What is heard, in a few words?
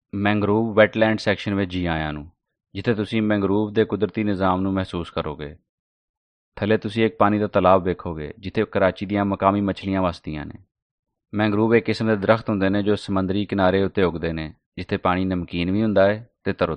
Punjabi, audio guides; Please select your preferred language.